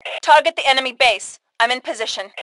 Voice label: Target Base, Female; T2 Female 01